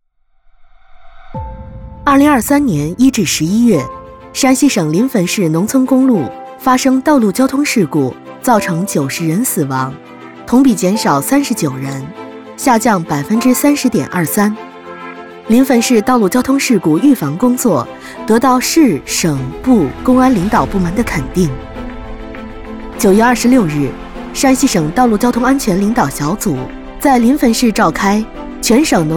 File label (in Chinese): 专题大气